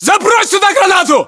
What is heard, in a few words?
order action grenade, ru